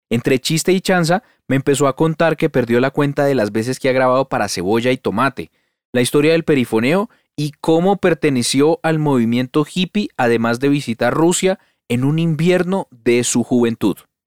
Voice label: spanish latin american neutral podcasts